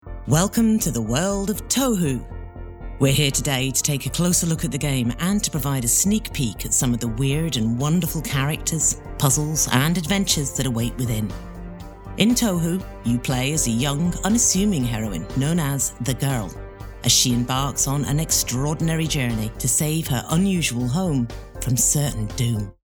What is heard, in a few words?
Gamer explainer learning whiteboard; Tohu